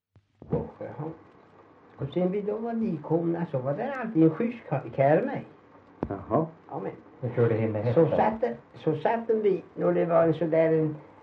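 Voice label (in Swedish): Värö Halland, svenska sagor dialekter; Folkminnen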